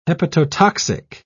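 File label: "hepatotoxic", British English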